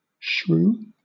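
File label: "shrew", British English; pronunciations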